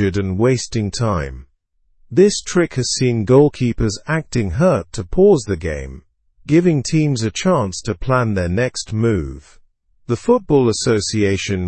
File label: english through news upper intermediate; english football s new strategy against tactical timeouts